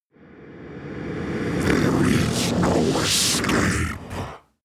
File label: demon dark voice reverse there is no escape